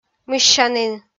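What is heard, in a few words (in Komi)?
"мыссянін", Komi, female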